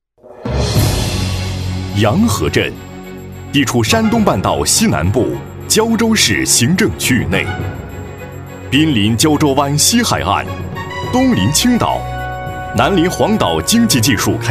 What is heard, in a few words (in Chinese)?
男80 城市宣传 样音; 洋河镇; 央视农广